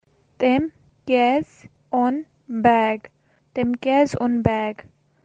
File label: Kashmiri; Why did he bring the bag?; Taem kyaes aun bag?